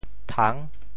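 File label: "thang6", Teochew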